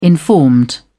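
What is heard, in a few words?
"informed", British English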